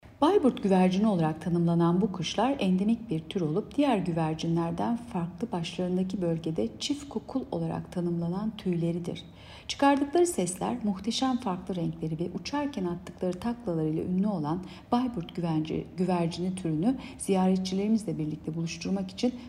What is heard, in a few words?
turkce